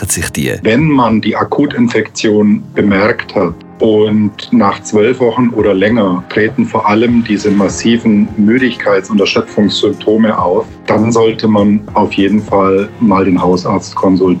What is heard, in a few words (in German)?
Infomercials Long Covid; Kliniken Valens